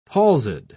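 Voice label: "palsied", British English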